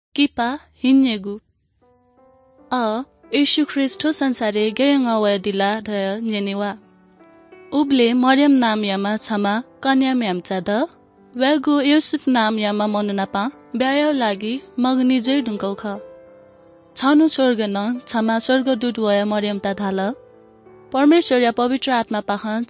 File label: good news newari bhaktapur; baalaangu khaan; bible